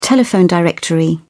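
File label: "telephone directory", British English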